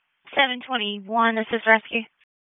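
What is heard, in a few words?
Dispatch 1, P25; WSO DISP 1; Wake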